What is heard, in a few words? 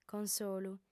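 "consolu", female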